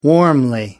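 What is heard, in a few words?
"warmly", English, British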